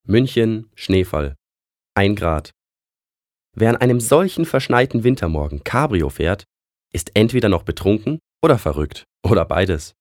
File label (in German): Schauspiel Neutral